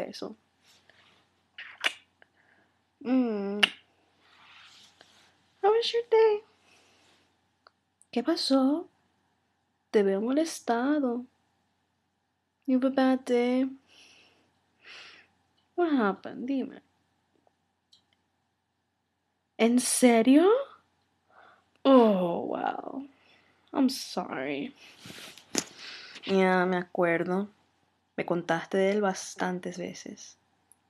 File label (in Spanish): Después de un día largo del trabajo español moaning noises f4a; Erotic audio